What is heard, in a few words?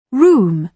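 "room", British English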